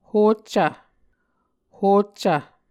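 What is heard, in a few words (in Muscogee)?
"hoccv", Muscogee word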